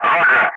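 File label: "roger", English; Ka-50; Wingman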